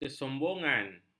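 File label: "kesombongan", Malay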